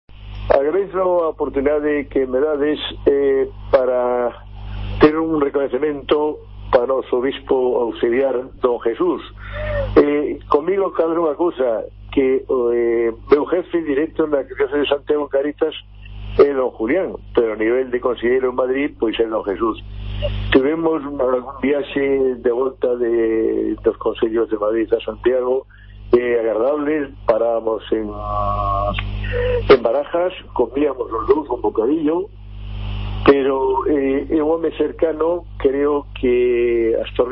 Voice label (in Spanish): ANUNCIO